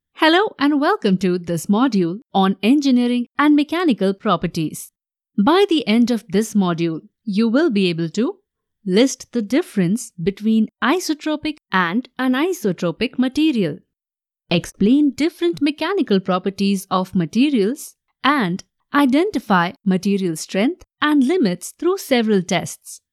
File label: English E Learning